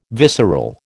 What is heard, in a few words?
"visceral", American